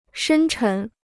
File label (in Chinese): "深沉", Chinese